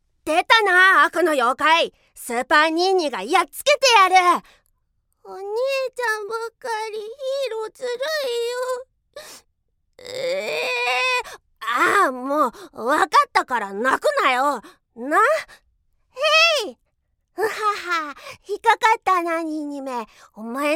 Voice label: 幼い兄弟

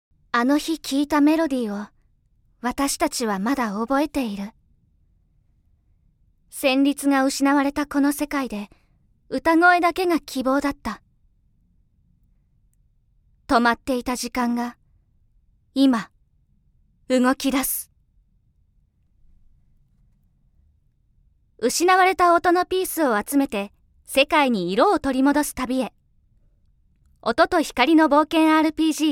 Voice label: ゲームCM

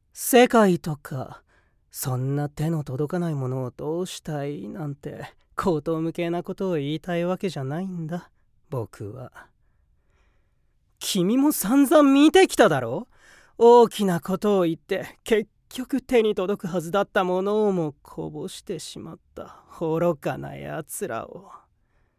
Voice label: 悪役

